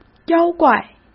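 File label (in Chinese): "妖怪", Mandarin; chinese flashcards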